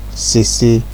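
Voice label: "sisi" Nigerian Pidgin, male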